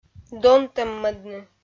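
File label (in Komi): "донтӧммӧдны", Komi, female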